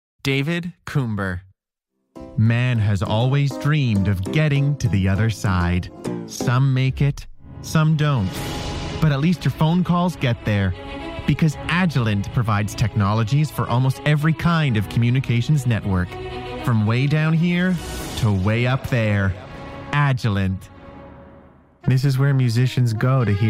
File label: English US